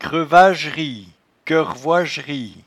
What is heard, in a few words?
"crevoigerie", patois jura